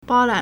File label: "bao1lan3", Chinese